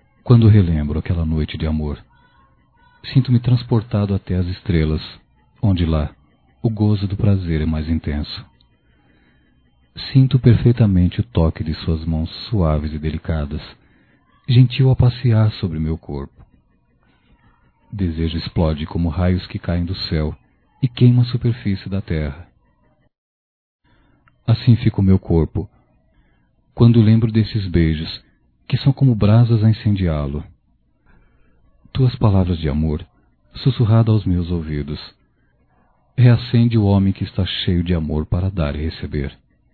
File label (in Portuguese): Erótica Leve Masculino, Só Voz; Telemensagens; Telemensagens 2017